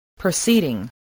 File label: "proceeding", voice, spelling